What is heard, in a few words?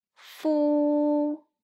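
"fu", Mandarin pinyin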